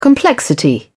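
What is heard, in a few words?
"complexity", British English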